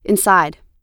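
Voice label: "inside", US English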